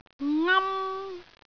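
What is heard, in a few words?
"ngam1", Cantonese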